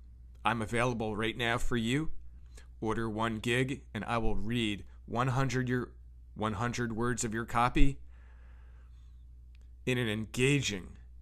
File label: audio voice over; fiver